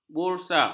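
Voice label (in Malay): "busa", Malay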